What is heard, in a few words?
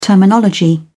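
"terminology", British English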